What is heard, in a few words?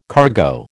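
"cargo", American English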